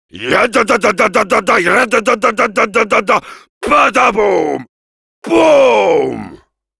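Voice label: special completed, French; Heavy; "(Dance du sabre de Khatchaturian) Badaboum !"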